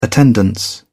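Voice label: "attendance", English, British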